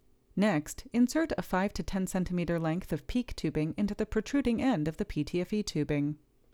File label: Voiceover